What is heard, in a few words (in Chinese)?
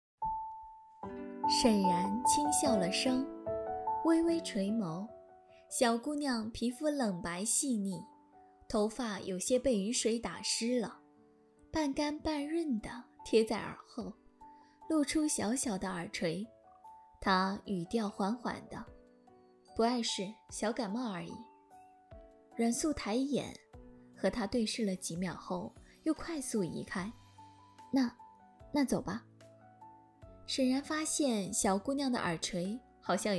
言情小说片段; 伊芙